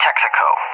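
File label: "Texaco", English, USA; CallSign; DCS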